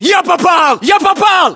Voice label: inform killfirm infantry, battlechatter ru

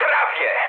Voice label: bomb failure mood high, speech pol; War Thunder

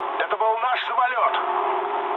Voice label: player killed ally, mood low, speech ru; War Thunder

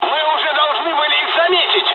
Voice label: dialog, dialogs russian; us midway; War Thunder

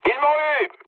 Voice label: aircraft destroyed mood low, speech fr

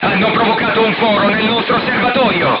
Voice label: player tank hit, crew tanks italian; War Thunder

